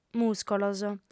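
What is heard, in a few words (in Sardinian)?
"muscolos", female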